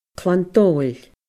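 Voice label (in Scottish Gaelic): "Clann Domhaill", Scottish Gaelic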